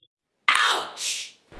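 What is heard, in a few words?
Voice Acting Spanish Female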